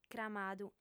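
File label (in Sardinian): "cramadu", female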